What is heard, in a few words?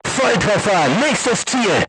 voice message target destroyed, crew tanks german